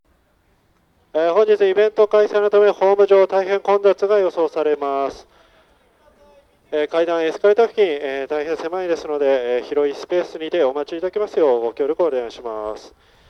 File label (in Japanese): 簡易(ﾎﾘﾃﾞｰ快速 臨時停車)+駅員放送, 冒頭の駅員放送も含めて掲載しております。; 米軍基地公開時のﾎﾘﾃﾞｰ快速臨時停車にて収録したものです。; 牛浜